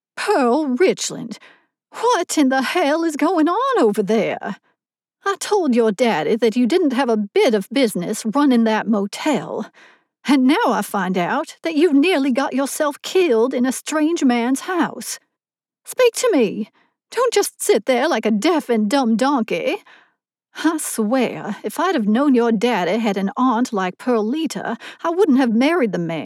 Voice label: Old Southern US and Texas Accent